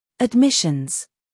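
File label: "humor", British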